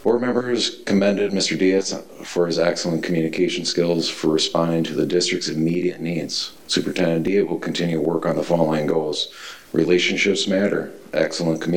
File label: News; Hutchinson Superintendent Public Review; On December 9th, the Hutchinson School Board held a semi-annual performance ev; Ingstad Media